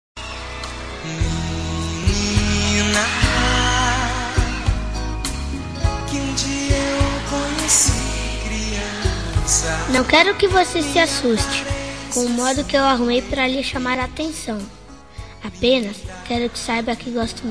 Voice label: Romântica Com Paquera, M Infantil

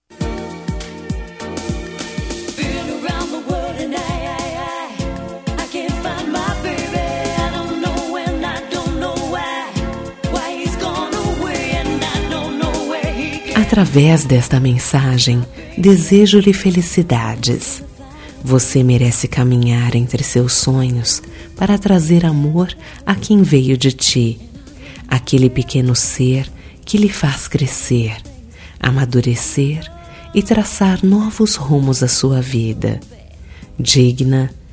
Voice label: Dia das Maes, F

